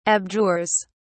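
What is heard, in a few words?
"abjures", British English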